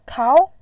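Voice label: "kao2", Mandarin Chinese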